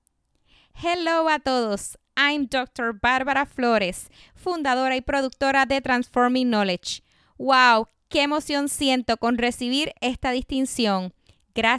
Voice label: bilingual; Transforming Knowledge; Mensaje Bilingual Podcast